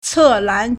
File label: "ce4lan2", Chinese